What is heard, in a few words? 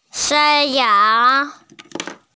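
"shan1yang2" Mandarin Chinese, female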